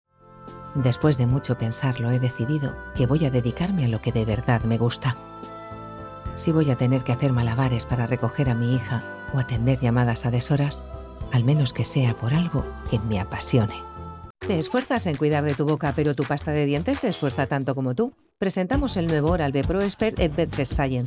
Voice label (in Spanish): voz publicidad, mujeres 35 a 50